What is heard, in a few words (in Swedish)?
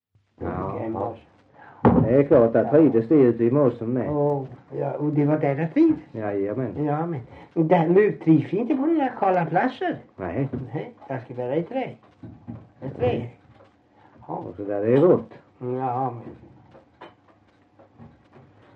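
Värö Halland, dialekter; Folkminnen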